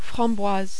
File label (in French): "framboise", French